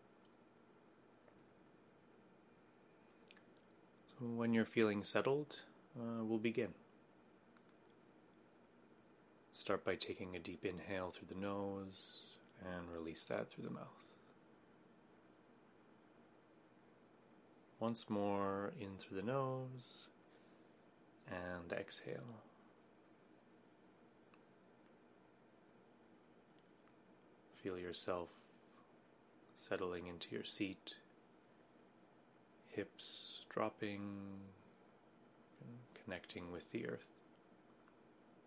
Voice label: meditation guided sound; progressive